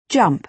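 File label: "jump", American English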